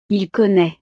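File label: "il connait", French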